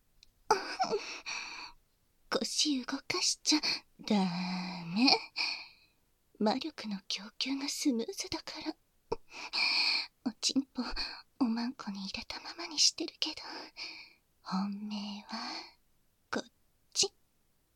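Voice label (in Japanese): 耳舐め（バイノーラルマイク 効果音なし）; ハメたまま耳舐め射精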